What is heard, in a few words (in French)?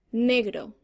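"noir", French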